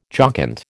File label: "jocund", American